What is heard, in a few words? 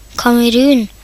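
"Cameroon", Norwegian; gcompris; geography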